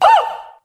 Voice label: "oh", Voice; ooh ahh

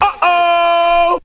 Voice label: "uh oh", Voice

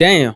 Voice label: "damn", expletives

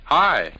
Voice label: "hi", male; Voice; expletives

